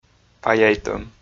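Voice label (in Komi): "паяйтӧм", Komi, male; audio dict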